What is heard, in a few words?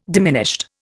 "diminished", Indian